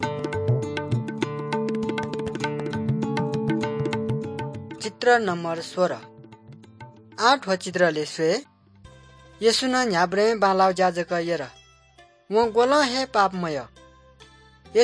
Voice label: good news newari balami